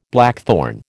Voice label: "blackthorn", American